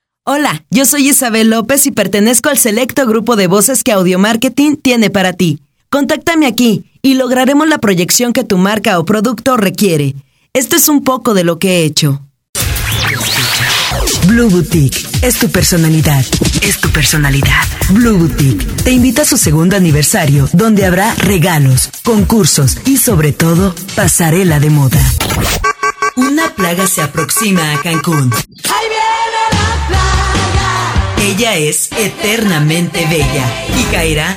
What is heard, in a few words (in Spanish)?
Locutora